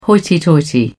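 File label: "hoity-toity", British English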